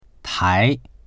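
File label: "tai2", Mandarin Chinese; 台; 台（機械や機器などを数える）; dictionary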